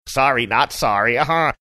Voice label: saying sorry not sorry funny